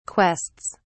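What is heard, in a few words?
"quests", British English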